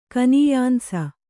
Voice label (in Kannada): "ಕನೀಯಾಂಸ", Kannada